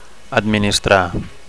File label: "administrar", Catalan